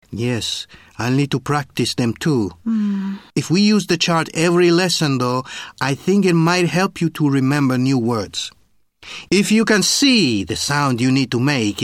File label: learning english, Dialogs